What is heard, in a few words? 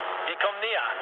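German near waypoint mood low, speech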